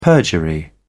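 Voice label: "perjury", British English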